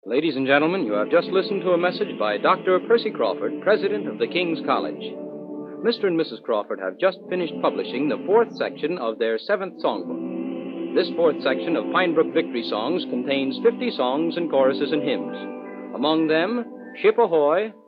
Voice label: Closing Announcement